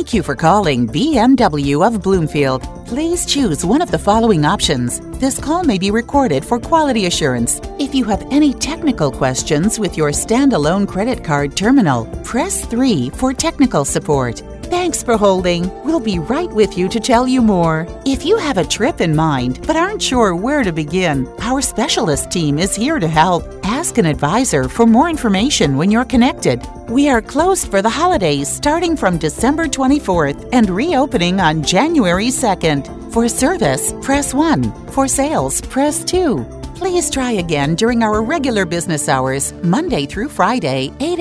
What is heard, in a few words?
Auto Attendant, English